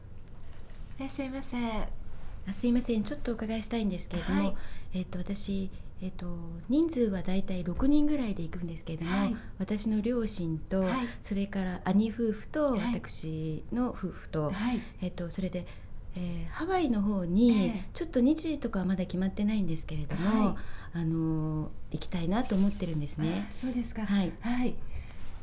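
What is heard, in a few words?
female; RWCP SP97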